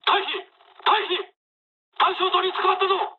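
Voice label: searchlite mood low, speech japanese; War Thunder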